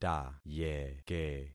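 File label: "tayeke", concatenated syllables; Affixing experiment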